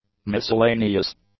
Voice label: "miscellaneous", English; adjectives